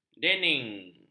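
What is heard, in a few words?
"dening", Malay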